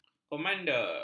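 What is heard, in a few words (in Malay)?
"komander", Malay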